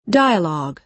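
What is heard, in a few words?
"dialogue", American English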